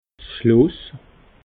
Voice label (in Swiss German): "schloss", alsace